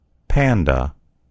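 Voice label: "panda", American English; gcompris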